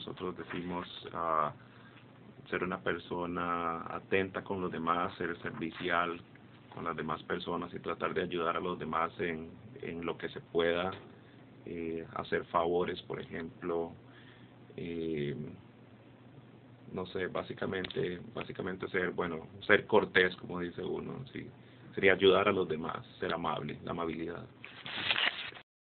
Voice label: Costa Rica Perception Male